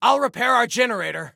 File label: SelfRepair Generator, Soldier Male; Soldier Male 01